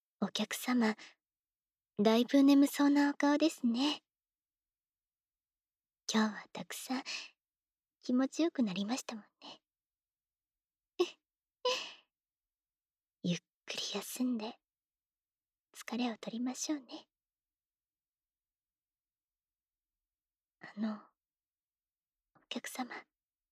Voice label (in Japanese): 添い寝, 環境音なし; エフェクトなし; 効果音なし